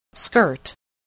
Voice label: "skirt", voice, spelling